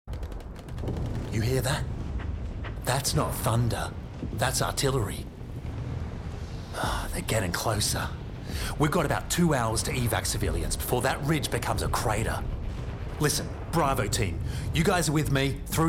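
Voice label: NARRATION GAMING, Authentic Australian Voiceover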